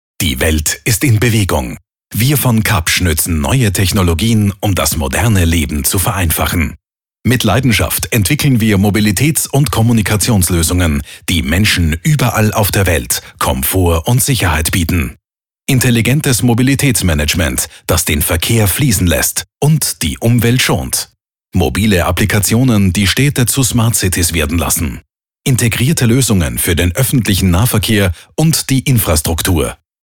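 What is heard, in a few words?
Austrian German, male, explainer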